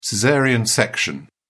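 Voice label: "caesarean", British English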